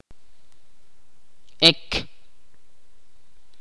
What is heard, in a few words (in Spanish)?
"estrella", Ch'orti'